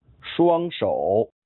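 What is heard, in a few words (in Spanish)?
"双手", chino mandarin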